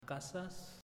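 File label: "Casas", Spanish, male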